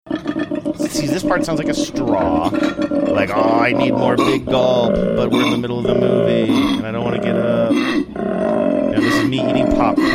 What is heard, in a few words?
narrating over sound growling animal